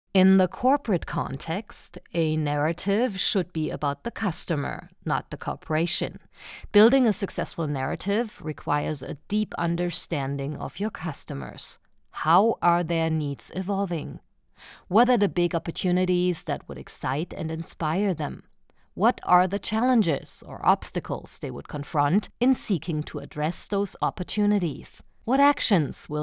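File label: German female voice over, English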